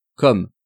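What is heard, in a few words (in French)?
"comme", French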